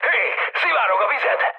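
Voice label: Hungarian damaged water mood high, speech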